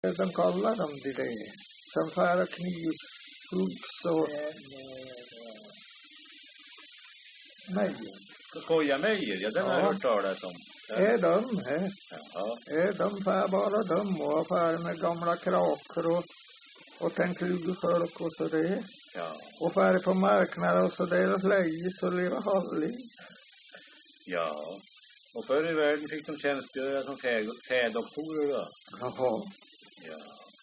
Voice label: dialekter; Folkminnen

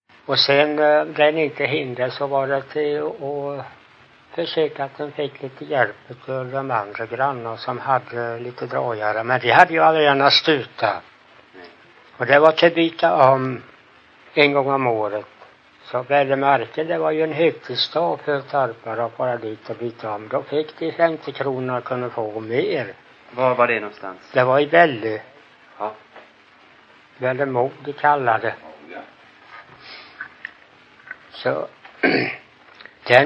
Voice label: Ingatorp, dialekter; Folkminnen

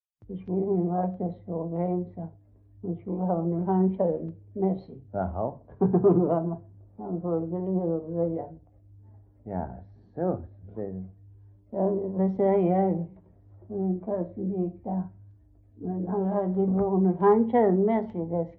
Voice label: S Svedala, dialekter; Folkminnen

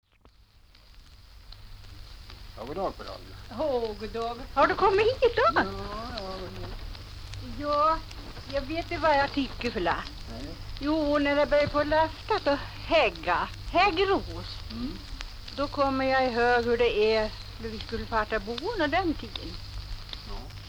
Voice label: Hanebo, dialekter; Folkminnen

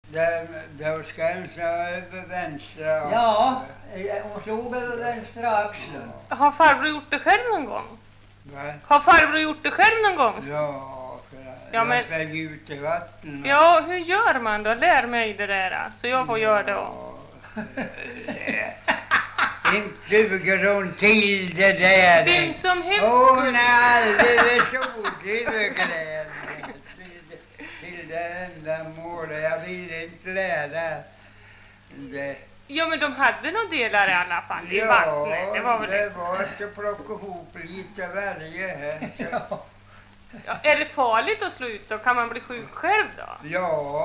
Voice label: dialekter; Folkminnen

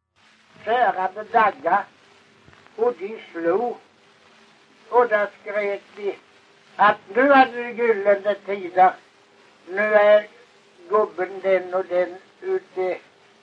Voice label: Kristianopel BLEK, dialekter; Folkminnen

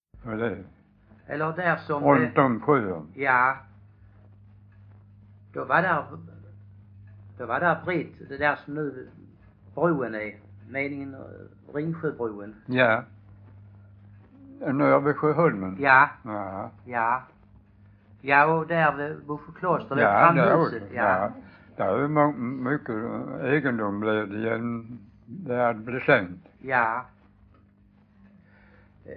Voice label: Stehag Västra Sallerup SK, dialekter; Folkminnen

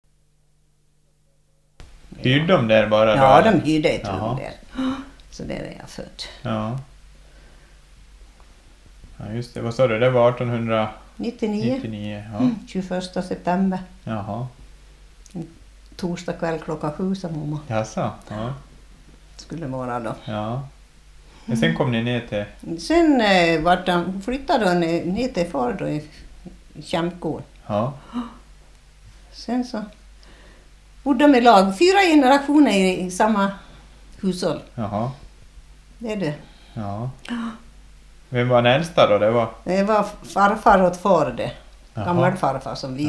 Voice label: St Tuna, dialekter; Svenska sagor; DAL; Folkminnen

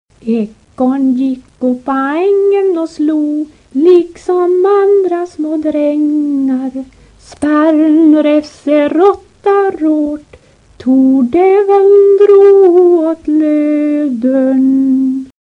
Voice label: Norsjo, dialekter

